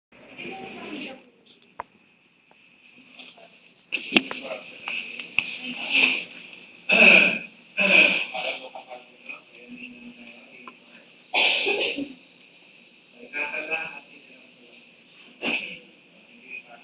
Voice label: lecture